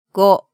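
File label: "go", Japanese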